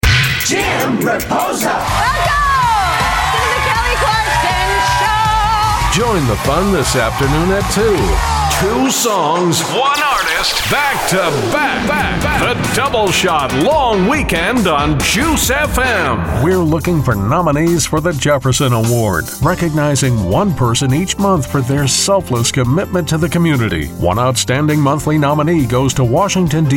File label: Broadcast Imaging